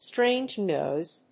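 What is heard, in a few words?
"strange noise", English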